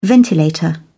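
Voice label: "ventilator", British English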